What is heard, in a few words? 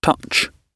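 "touch", British English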